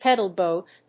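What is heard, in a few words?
"pedal boat", English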